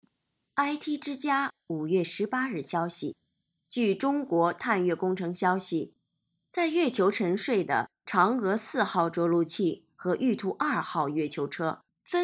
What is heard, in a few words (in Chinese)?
模仿播音